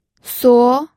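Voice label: "suo2", Mandarin Chinese pinyin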